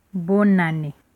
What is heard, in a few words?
christmas, Lingala